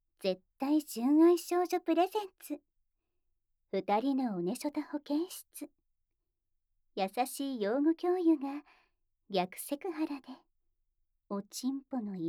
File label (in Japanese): タイトルコール; 二人のおねショタ保健室。; SEなし